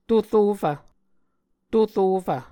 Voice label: "torofv", Muscogee